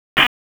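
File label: Police Southwest District Disp, radio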